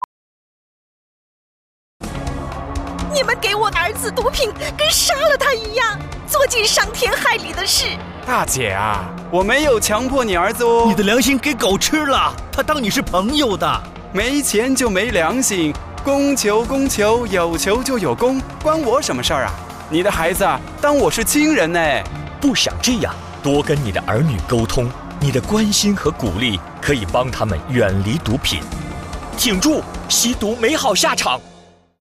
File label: mandarin; parents; radio